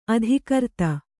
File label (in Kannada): "ಅಧಿಕೃತ", kannada